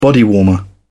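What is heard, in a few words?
"bodywarmer", British English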